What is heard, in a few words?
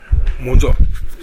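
"mozo", Minamiyamagata dialect Japanese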